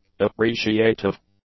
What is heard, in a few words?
"appreciative", English; adjectives